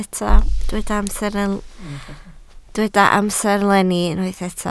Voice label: "amserlenni", Welsh